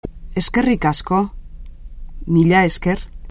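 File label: "thanks", Basque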